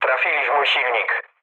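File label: damaged engine, mood low, Polish speech; War Thunder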